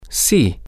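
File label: yes, Spanish, male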